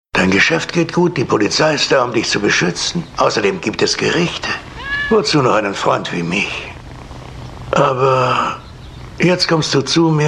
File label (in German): Synchron